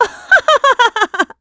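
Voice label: Vox Happy, voices heroes English; kingsraid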